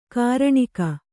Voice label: "ಘೂರ್ಣಕ", Kannada

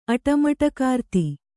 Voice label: "ಅಟಮಟಕಾರ್ತಿ", kannada

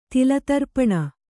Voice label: "ತಿಲತರ್ಪಣ", Kannada

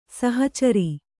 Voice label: "ಸಹಚರ", Kannada

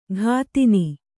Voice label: "ಘಾತಿನಿ", kannada

